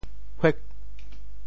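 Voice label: "huah8", Teochew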